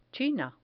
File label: "china", Spanish